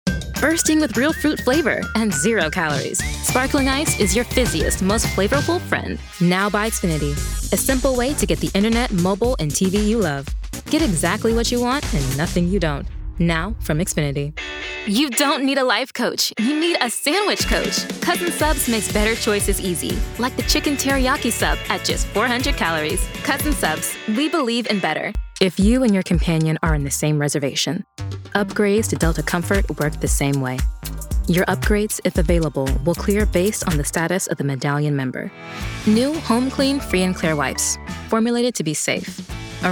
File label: Commercial, African American Female Voice Actor